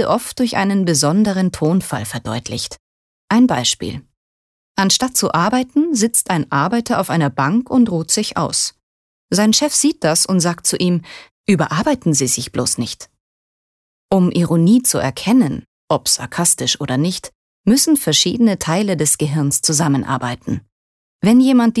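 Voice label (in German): Kommentar Sarkasmus Neutral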